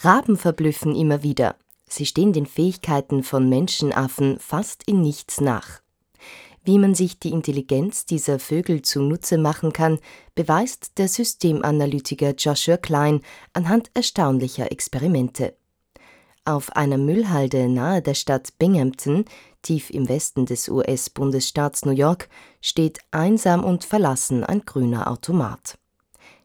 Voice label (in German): Anmoderation Radiobeitrag; Sprecherin; Anmoderation Radiobeitrag „Raben“